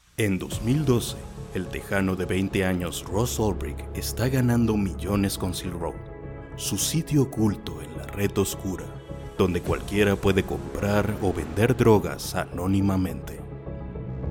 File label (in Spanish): Documental musicalizado, locutor